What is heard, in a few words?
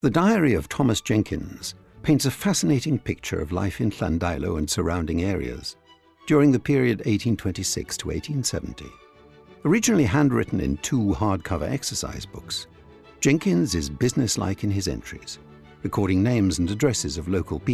Narration; Diary of Thomas Jenkins